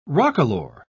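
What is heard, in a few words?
"roquelaure", British English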